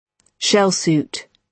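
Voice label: "shell suit", British English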